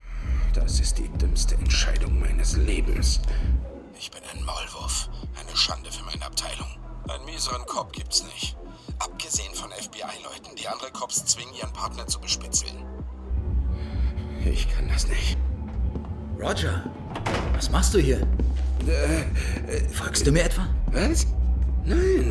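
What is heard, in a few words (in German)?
Synchron, Sprecher, Männer; Lethal Weapon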